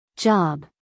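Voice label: "job", American English, female; 1000 hours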